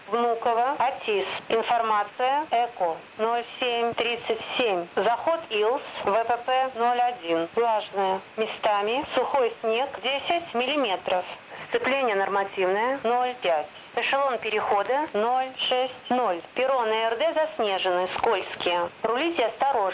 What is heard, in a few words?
atis; uuww